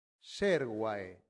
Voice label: "servae", Latin; santillana